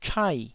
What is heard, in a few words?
"chai4", taiwanese